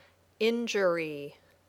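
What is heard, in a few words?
"injury", English word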